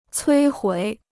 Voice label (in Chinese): "摧毁", Chinese